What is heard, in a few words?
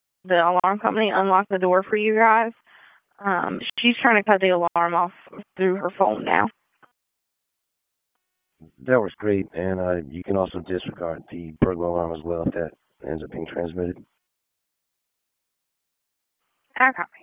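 FIRE Operations, p25; wake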